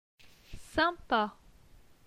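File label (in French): "Sympa", French; phonetique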